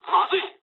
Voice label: jp trick, speech jp; War Thunder

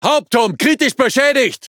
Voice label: De Speech Defective Modules Main Gun Damaged; World Of Warships

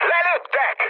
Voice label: aircraft destroyed, speech hun; War Thunder

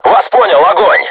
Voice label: voice message art shoot, crew tanks russian

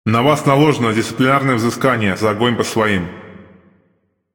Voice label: RU Speech Friendly Fire Punishment; World Of Warships; USSR Ovechkin

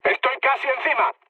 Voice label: near waypoint, mood med, speech; War Thunder

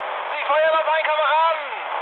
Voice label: player friendly fire, mood med, speech ge; War Thunder

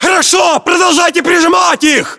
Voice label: order action boost, ru; localized english

